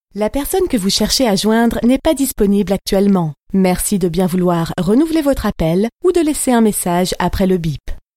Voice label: Téléphonie Attente

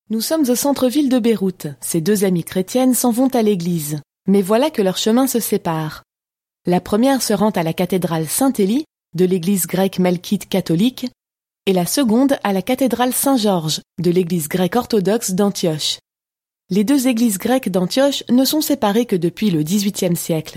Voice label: Reportage TV

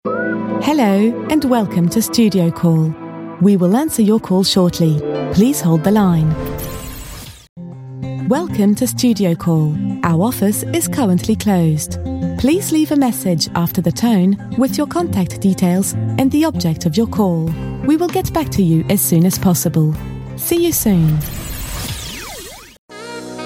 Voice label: Telephonie Attente

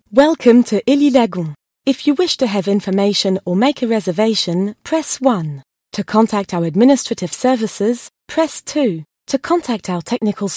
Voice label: Serveur Vocal Interactif

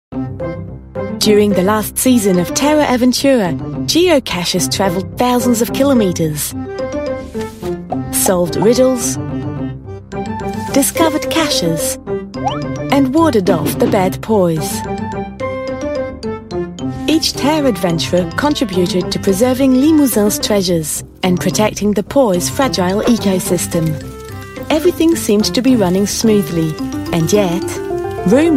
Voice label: Vidéo promotionnelle

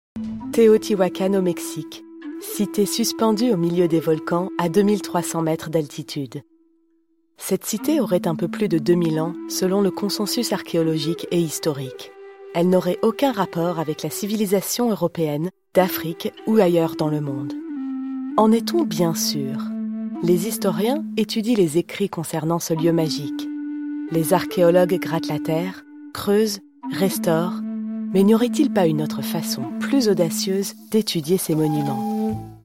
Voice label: Documentaire FR

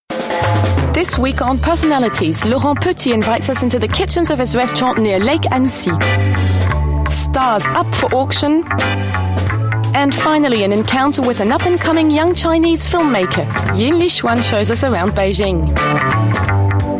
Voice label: Reportage TV Narration

